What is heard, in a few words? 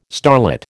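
"starlet", American English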